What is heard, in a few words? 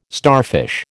"starfish", American